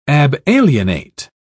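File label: "abalienate", British English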